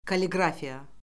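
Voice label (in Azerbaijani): "kalliqrafiya", Azerbaijani; Orfoqrafiya Lüğəti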